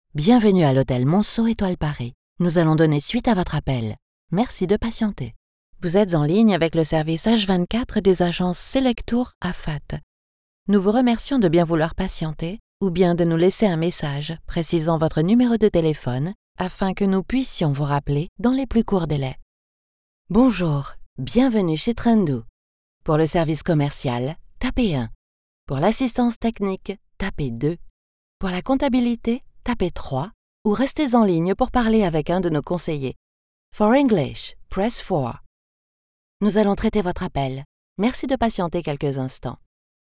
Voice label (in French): Attente Tel Francais